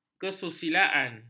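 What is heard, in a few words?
"kesusilaan", Malay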